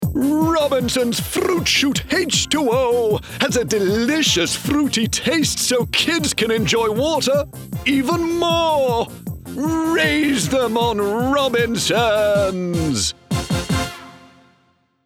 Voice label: cartoon character kids commercial